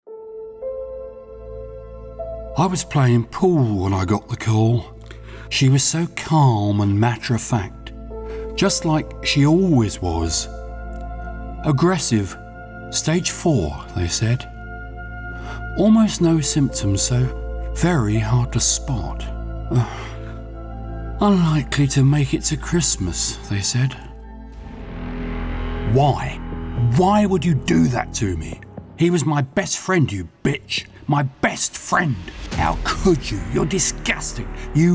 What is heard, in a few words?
Dramatic